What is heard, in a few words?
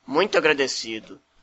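thanks, Brazilian Portuguese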